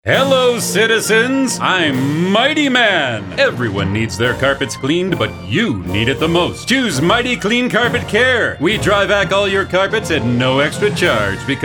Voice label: commercial; MIGHTY CLEAN; 60 second interupting commercial